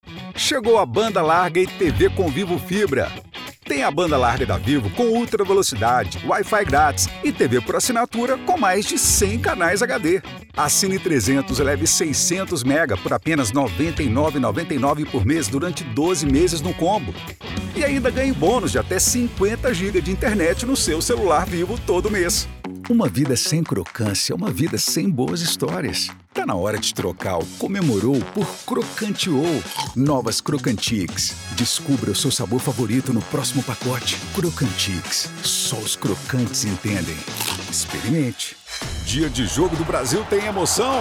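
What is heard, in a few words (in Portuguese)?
Varejo Promocional, Locução Publicitária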